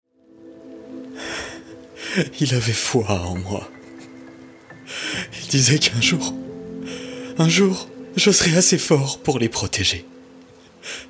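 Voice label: Character Natural (French)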